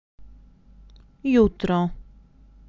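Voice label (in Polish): "jutro", Polish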